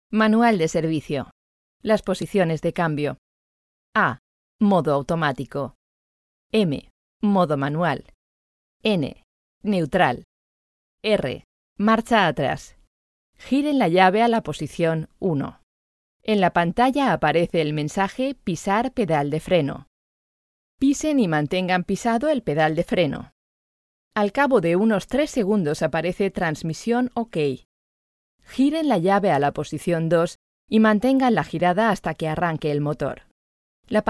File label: e learning agile